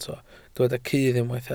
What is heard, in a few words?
"cudd", Welsh